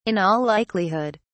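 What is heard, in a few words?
"in all likelihood", British English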